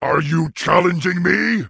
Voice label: Orc Male Guard NPC Pissed